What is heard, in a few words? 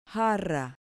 "jarra", Spanish